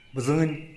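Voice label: "bzïn", Tlacochahuaya Zapotec; deer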